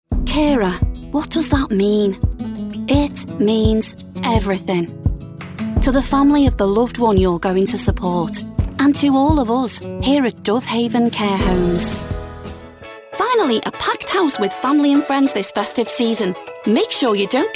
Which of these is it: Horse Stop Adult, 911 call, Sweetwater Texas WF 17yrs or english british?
english british